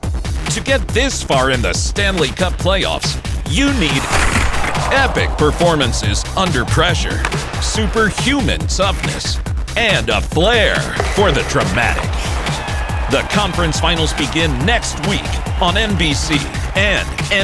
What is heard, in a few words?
Sports Promo; Commercial & Promo